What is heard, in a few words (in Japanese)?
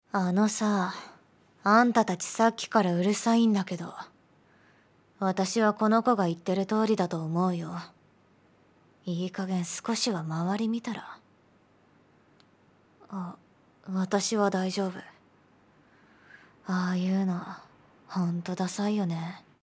アニメ ダウナー 20歳